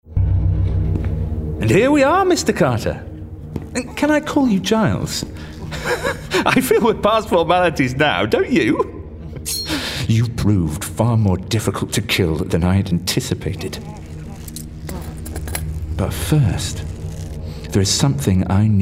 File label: Gaming Villain RP